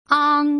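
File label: "ang", Mandarin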